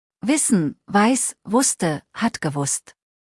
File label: "wissen", deutsch; verben kurzliste